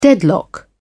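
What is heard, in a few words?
"deadlock", en, British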